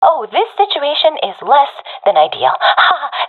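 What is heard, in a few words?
scared, professional female; vo; Counter Strike Model Pack; CS:GO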